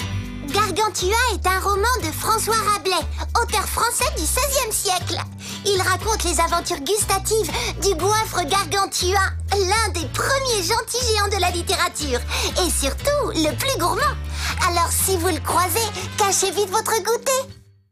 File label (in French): dessins animes; petites poules